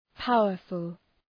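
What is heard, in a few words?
"powerful", English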